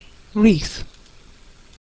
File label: "wreath", English